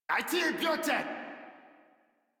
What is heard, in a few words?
Cover Me, Modular Corpus Male; Warframe